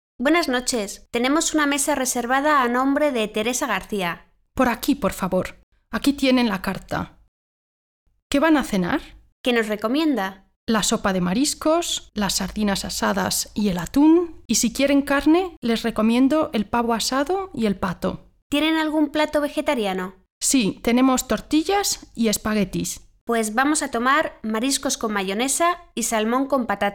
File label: conversation